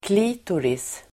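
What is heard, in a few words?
"klitoris", Swedish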